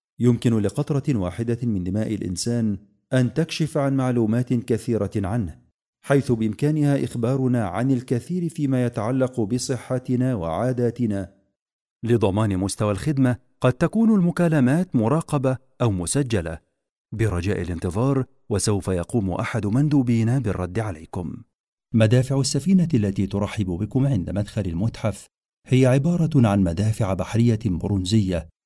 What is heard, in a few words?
arabic voice over